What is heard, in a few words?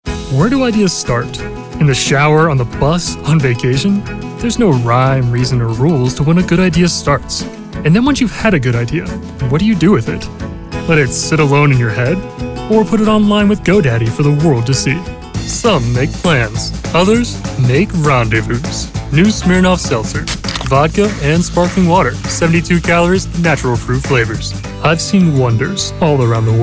vo commercial